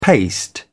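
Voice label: "paste", British English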